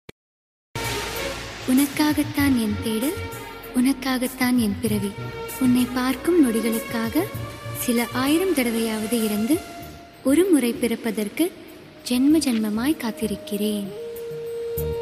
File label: Love Feel Dialogue Ringtone